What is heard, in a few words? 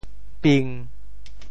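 "ping1", Teochew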